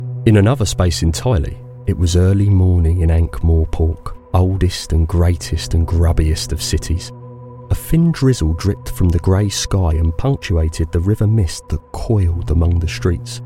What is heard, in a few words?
Audiobook; regional accents